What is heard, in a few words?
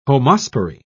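"homospory", British English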